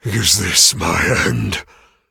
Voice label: hit, en; cookie run